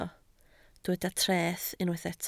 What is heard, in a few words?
"treth", Welsh